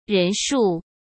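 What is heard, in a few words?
"人数", Mandarin Chinese; chinese flashcards; hsk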